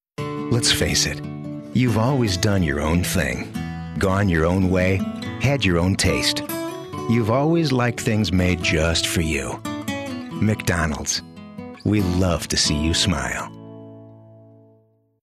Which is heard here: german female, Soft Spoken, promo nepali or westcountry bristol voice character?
Soft Spoken